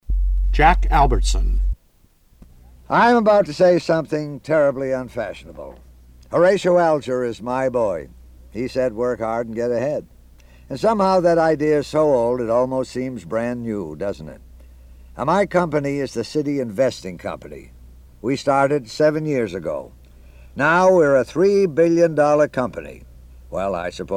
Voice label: Male Ad Voices; ted cassidys advertising demo reel